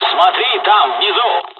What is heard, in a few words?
dialog, dialogs russian; War Thunder